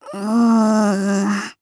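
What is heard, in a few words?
Vox Think, Japanese; Rehartna; kingsraid audio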